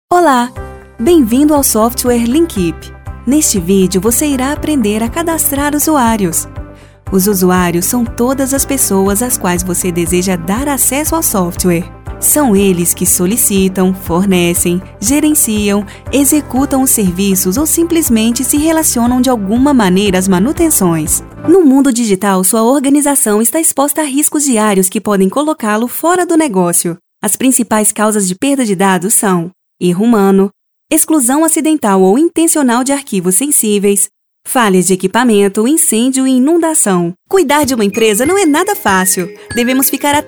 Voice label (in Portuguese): locutora